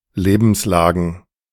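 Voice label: "Lebenslagen", German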